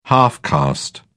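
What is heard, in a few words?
"half caste", British English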